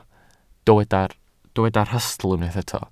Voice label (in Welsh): "rhastl" Welsh